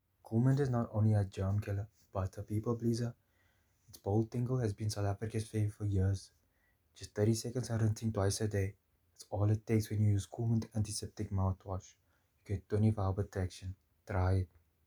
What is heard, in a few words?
Light Cape Accent